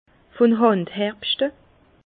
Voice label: "hand", Bas Rhin; vin; vendanges manuelles

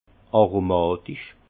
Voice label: "aromatisch", Alsace; vin; aromatique

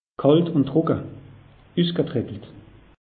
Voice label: "kalt un trucke", alsace; meteo

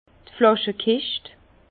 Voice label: "flaschekischt", Bas Rhin; vin; caisse à bouteilles

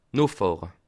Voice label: "nuffahre", Alsace

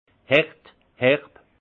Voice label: "hert", alsace; vin; dur

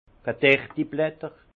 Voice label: "gedertiblatter", Alsace; vin; feuilles sèches

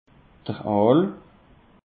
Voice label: "aal", alsace; peche

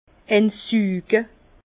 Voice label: "einssuge", Bas Rhin; en aspirer une lampée; vin

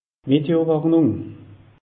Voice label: "meteo warnung", alsace

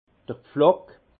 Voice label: "pflock", alsace; vin; goujon

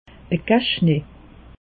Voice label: "cache nez", alsace; meteo

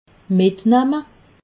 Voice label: "mitnamme", alsace

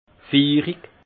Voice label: "fiirig", alsace; généreux; vin

